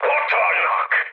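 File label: Hungarian enemy detected mood high, speech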